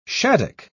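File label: "shaddock", British English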